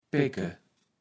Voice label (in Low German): "pecke", kaevels platt